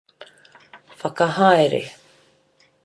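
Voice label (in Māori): "whakahaere", Māori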